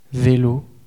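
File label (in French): "velo", French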